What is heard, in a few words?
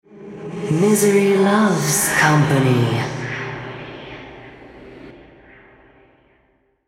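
Altar Capture Female, en US; ShadowIsles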